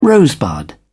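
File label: "rosebud", British English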